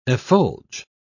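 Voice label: "effulge", British English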